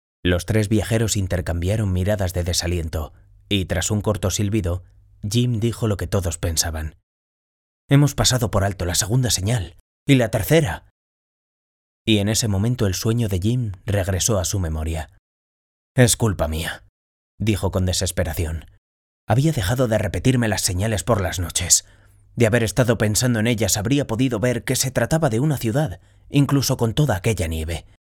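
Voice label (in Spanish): otros España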